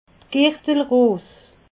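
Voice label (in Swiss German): "girtelros", Alsatian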